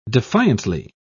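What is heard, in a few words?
"defiantly", British English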